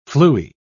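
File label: "fluey", British English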